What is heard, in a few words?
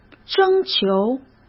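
"征求", Mandarin Chinese; chinese flashcards; hsk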